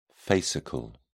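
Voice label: "fascicle", British English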